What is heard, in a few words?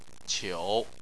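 "qiu", Chinese, tone 3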